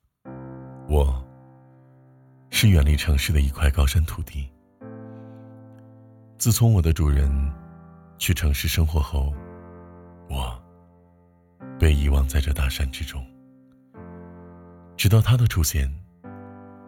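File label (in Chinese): 男【旁白】; 天宫庄园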